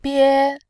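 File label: "bie", Mandarin Chinese, tone 1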